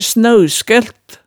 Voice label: "snauskølt", hemsedalsmaalet

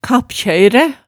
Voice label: "kappkjøyræ", hemsedalsmaalet tale

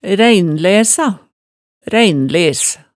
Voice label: "ræinlæsa", Hemsedal

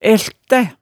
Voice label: "æltæ", hemsedalsmaalet, tale

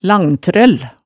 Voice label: "langtrøll", hemsedalsmaalet tale

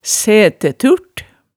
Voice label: "sætæturt", hemsedalsmaalet

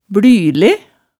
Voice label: "blyle", hemsedals maalet tale

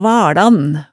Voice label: "valan", hemsedalsmaalet, tale

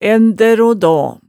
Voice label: "ændær o daa", hemsedalsmaalet tale

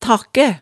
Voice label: "takkæ", hemsedalsmaalet tale

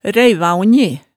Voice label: "ræivaongji", Hemsedal

